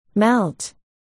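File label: "melt", spoken word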